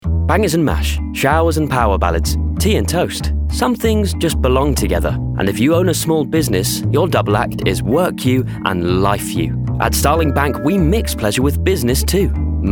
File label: Commercial; Starling Bank